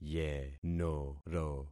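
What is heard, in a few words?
"yenoro", concatenated syllables; Affixing experiment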